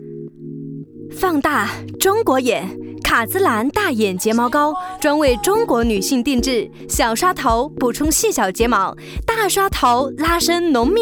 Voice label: 女 广告（时尚年轻）; 卡姿兰广告

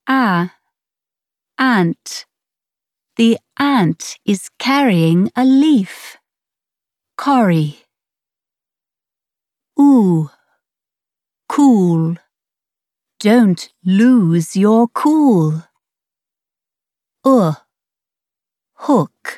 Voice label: 外籍女 教学课件

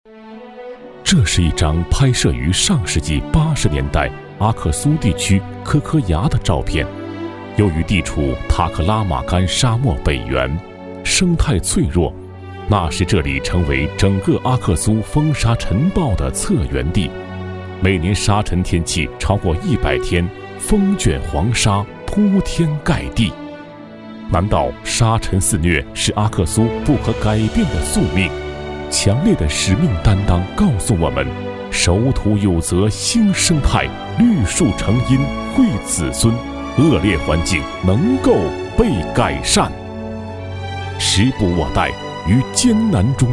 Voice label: 男 磁性讲述感; 阿克苏

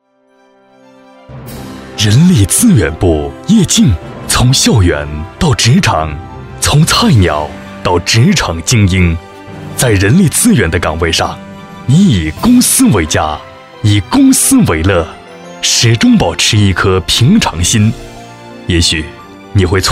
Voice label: 男 颁奖词（大气）; 高端广告; 中衡股份颁奖词

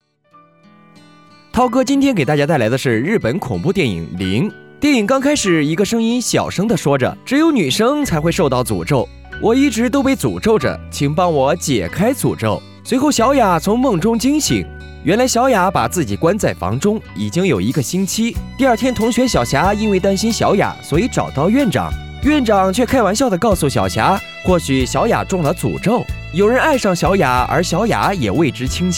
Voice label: 男 电影解说 抒情; 实惠大气

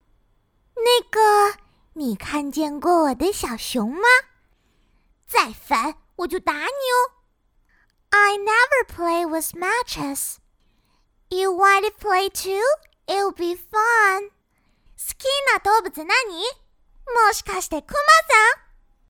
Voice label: 女 中日英女童

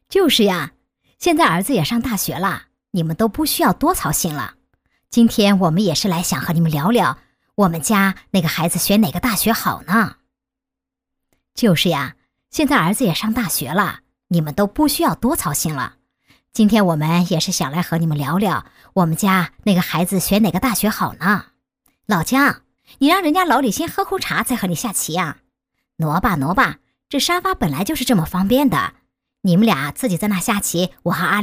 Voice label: 女 模仿妈妈

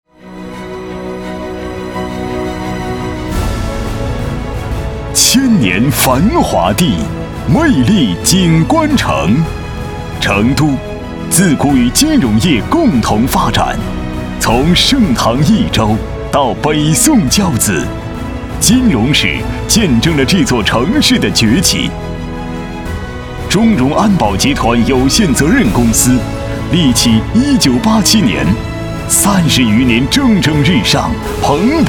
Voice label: 男 中融安保集团（大气）

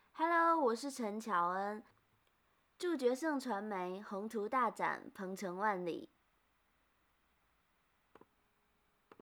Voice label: 女 模仿; 模仿陈乔恩

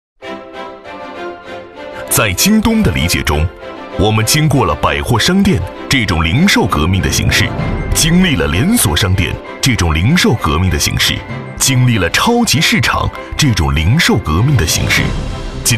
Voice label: 男 朝气大气 宣传片; 优质全能; 京东大学宣传片

